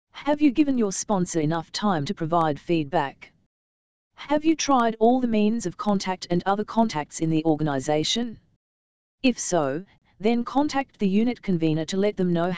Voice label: dialog